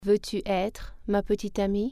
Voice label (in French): would you like to be my girlfriend, French